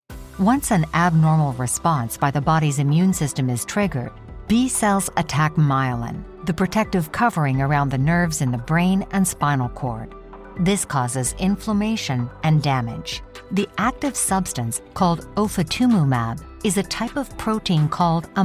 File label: Pharma professional confident